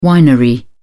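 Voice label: "winery", British English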